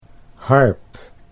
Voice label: "harp", Dutch

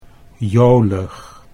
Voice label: "jolig", Dutch

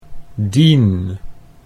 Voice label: "Dien", Dutch

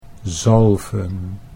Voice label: "zalven", Dutch; to anoint

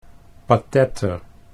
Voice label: "patetter", Dutch

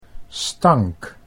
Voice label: "stank", Dutch

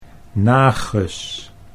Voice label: "nages", Dutch

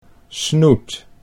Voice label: "snoet", Dutch

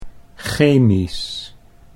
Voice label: "chemisch", Dutch

